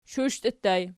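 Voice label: shushtii ergative plural, Archi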